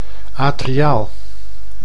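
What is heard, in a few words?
"atriaal", Dutch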